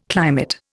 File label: "climate", Indian